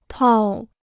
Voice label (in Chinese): "套", Chinese